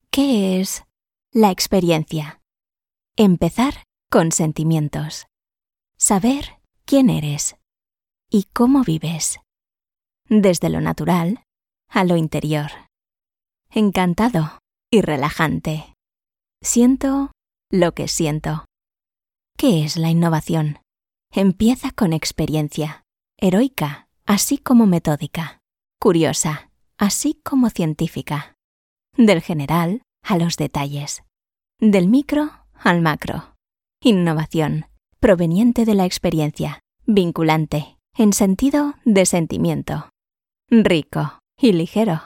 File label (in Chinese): 西班牙语 女 干音 广告; Innoaesthetics